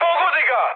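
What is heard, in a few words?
Serbian attack position mood high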